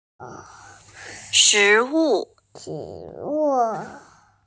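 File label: "shi2wu4", Mandarin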